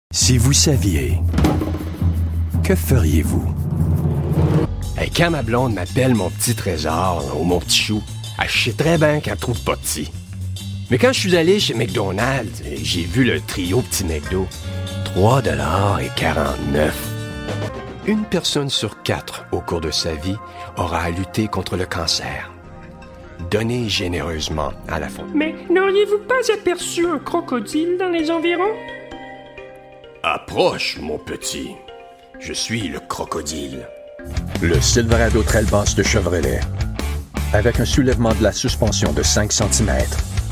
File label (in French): Demo Francais